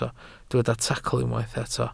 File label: "tacl", Welsh